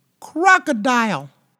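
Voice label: "crocodile", English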